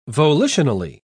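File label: "volitionally", British English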